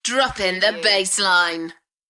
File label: UK female Droppin the bassline; Party / Dance; beanstalk audio